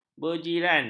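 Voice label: "berjiran", Malay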